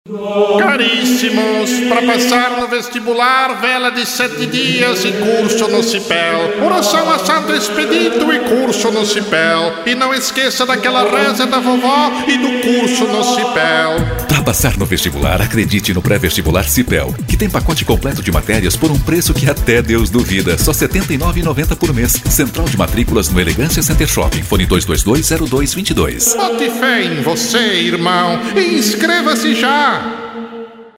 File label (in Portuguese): spot voz do padre; santa maria rs